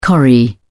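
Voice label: "corrie", British English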